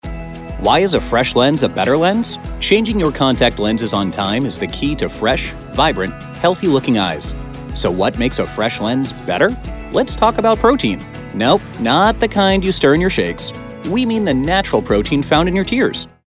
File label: friendly cheerful; acuvue